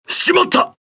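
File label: Speech Quick Commands Caramba, Japanese; World Of Warships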